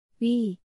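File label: "ㅟ", Korean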